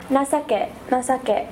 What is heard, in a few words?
nasake female